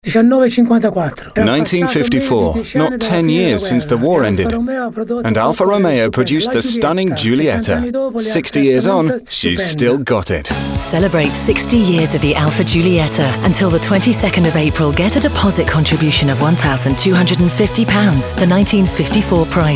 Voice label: Radio Ad; Alfa Romeo